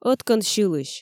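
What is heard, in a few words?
"ӧткӧн сьылысь", Komi, female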